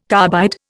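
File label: "carbide", Indian English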